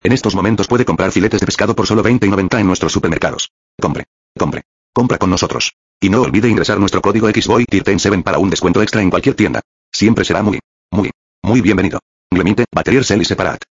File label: spanish speech